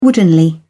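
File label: "woodenly", British English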